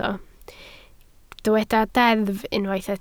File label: "deddf", Welsh